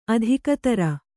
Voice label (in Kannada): "ಅಧಿಕತರ", Kannada